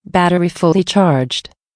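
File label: female battery fully charge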